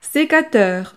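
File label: "secateur", French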